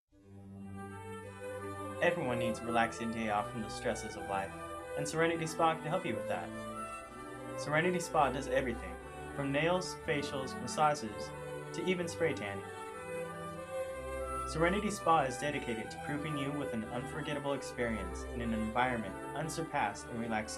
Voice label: spa commercial; serenity spa

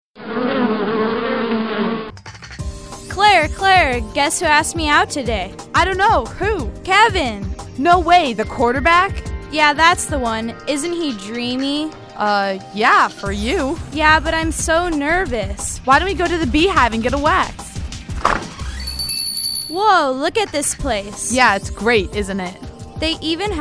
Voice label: Liner; Beehive Waxing Studio

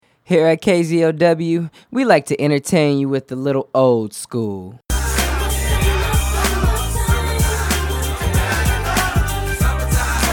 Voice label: Music Promo

